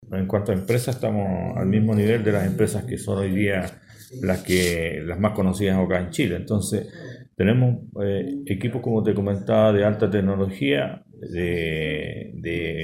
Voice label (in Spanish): Cuña